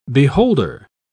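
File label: "beholder", British English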